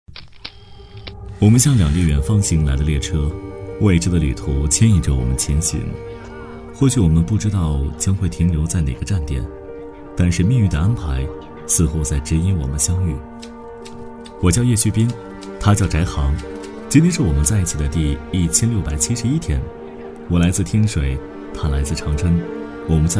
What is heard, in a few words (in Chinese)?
男 旁白配音 自然独白; 男D5-厚重有力 轻松活泼